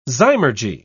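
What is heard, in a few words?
"zymurgy", British English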